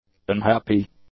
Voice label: "unhappy", english; adjectives

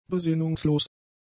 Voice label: "besinnungslos", german; adjectives

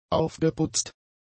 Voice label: "aufgeputzt", German; adjectives

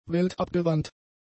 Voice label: "weltabgewandt", German; adjectives

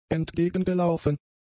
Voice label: "entgegengelaufen", German; adjectives

